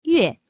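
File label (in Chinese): "月", Chinese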